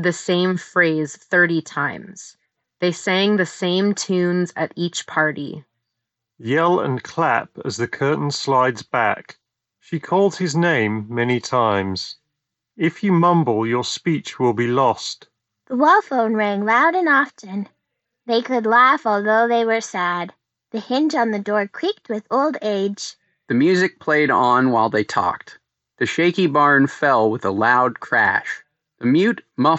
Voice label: mic ideal; google pixel buds pro 2